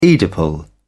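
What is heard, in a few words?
"oedipal", English, British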